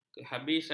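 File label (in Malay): "kehabisan", Malay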